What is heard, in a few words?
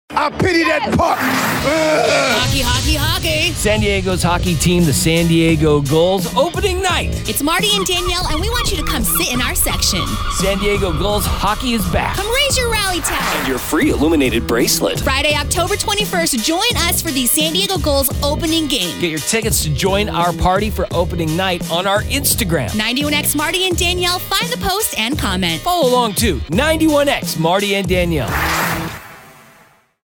PROMO; MD GULLS OPENING NIGHT PARTY